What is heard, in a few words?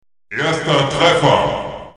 "firstblood", german; cstrike; quake